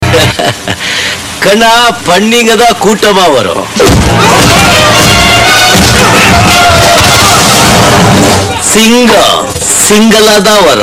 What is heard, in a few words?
tamil dialogue; ringtone